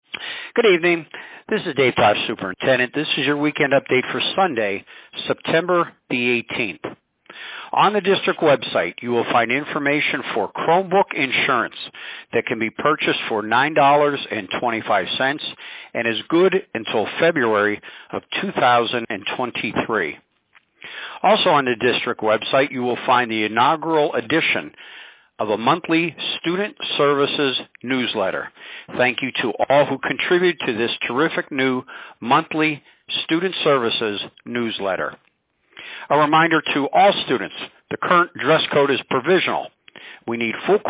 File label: Robocall